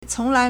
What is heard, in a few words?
"cong2 lai2", Chinese